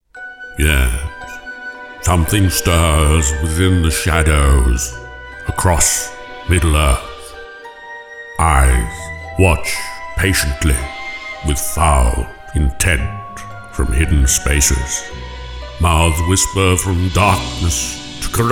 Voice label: Shakespearean Eery Storytelling; GANDALF